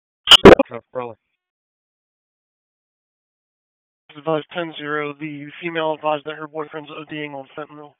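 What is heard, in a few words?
Police Southwest District Dispatch; Raleigh; wake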